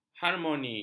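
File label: "harmoni", Malay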